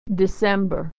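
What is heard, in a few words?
"December", American English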